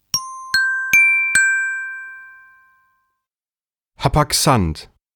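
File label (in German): "hapaxanth", German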